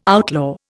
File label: "outlaw", Indian